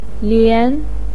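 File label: "lian", Mandarin pinyin, tone 2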